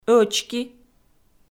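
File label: "ӧчки", Komi, female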